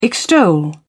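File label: "extol", British English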